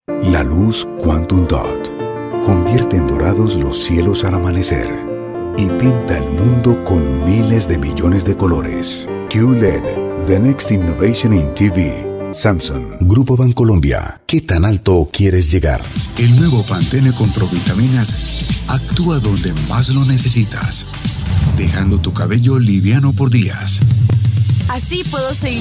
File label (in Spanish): Comercial, voice talent